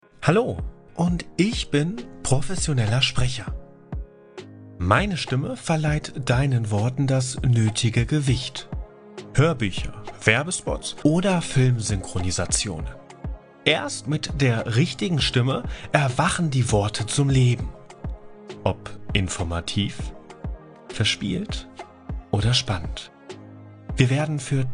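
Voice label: German, male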